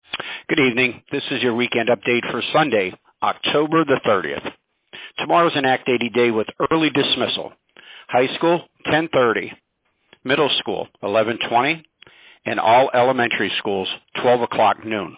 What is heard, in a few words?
Robocall